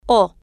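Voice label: "o", Spanish, female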